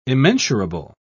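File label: "immensurable", British English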